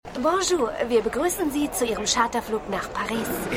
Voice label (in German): Flugangestellte, Stimmproben; Seinfeld